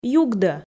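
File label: "югдӧ", Komi, female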